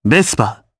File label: Vox Human, jp; heroes; Shakmeh; Vespa; kingsraid